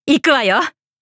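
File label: start, Japanese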